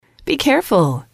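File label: "be Careful", female, english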